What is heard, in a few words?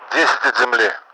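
ten ground, Russian; DCS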